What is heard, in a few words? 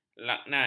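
"laknat", Malay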